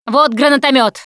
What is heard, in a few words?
grenade launcher, russian, Survivor Voice Producer